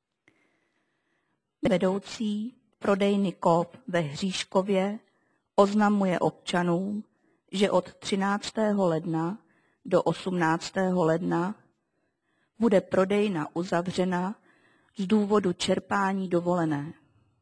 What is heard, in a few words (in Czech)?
hlaseni; rozhlas